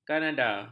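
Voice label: "kanada", Malay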